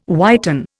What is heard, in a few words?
"whiten", Indian